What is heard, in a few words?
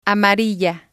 "amarilla", spanish